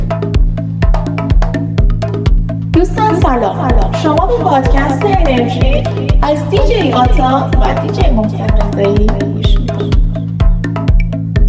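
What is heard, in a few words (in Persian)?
padcast enerji ba vocal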